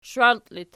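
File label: shwalti locative, Archi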